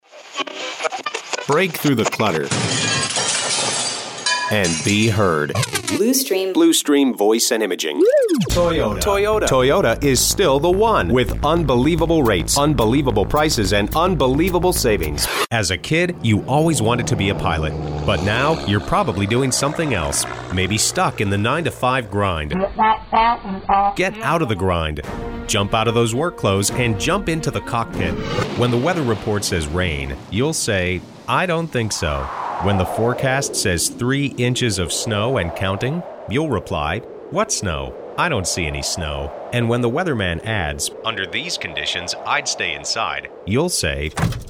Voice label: COMMERCIAL